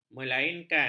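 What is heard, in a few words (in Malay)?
"melainkan", Malay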